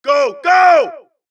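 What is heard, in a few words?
suppress, dialogue, Allies; mohaa; Mission 2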